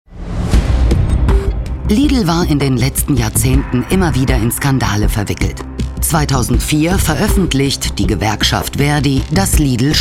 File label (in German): Doku; Lidl